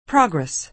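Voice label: "progress", American English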